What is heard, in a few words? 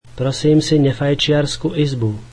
I would like a non-smoking room, slovak